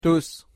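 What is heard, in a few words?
"tus", Spanish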